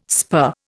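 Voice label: "spur", Indian English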